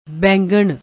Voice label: "bengan", Punjabi